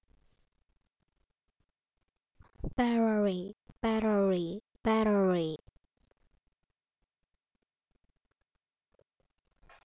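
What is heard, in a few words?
"battery", spoken word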